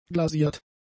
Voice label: "glasiert", German; adjectives